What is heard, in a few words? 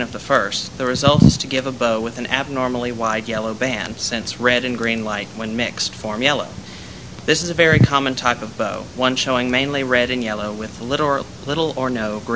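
alabama, International Dialects of English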